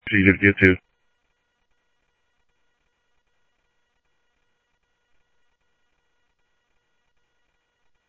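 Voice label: Sheriff Lake Patrol, scanner audio trunk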